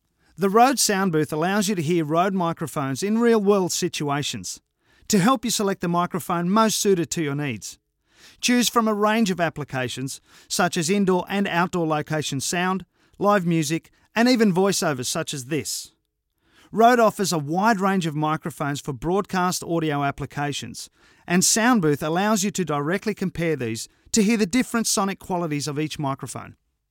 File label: rode ntg 3 voice over